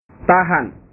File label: "tahan", bahasa